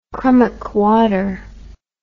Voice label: "crummock water", english